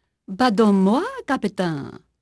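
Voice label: French female; Pirates Of The Caribbean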